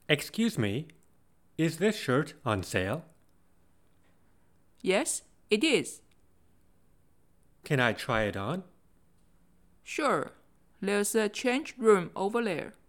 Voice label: basic english dialogs shopping clothing